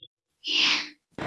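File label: Voice Acting Spanish Female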